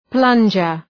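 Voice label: "plunger", English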